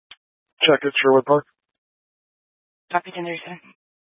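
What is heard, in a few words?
Incident Tactical, scanner audio recording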